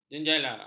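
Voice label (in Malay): "jenjala", Malay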